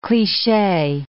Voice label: "cliche", English

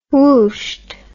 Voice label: "whooshed", English

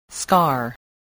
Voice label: "scar", english spelling

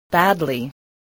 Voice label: "badly", english

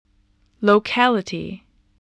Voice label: "locality", spelling

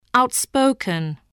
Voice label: "outspoken", English voice

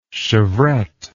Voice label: "chevrette", English

